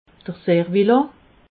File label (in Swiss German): "serwilà", alsace